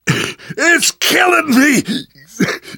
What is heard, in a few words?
tank pound, namvet, survivor voice; Left 4 Dead 2; left4dead2 dlc3